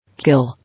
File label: "Kill", English